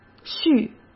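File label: "续", Mandarin Chinese; chinese flashcards; hsk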